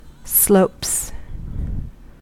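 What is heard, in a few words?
"slopes", American English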